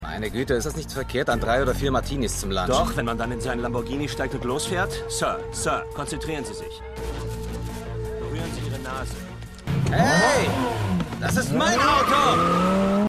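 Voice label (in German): Geschäftsmann Verkehrspolizist; The Flash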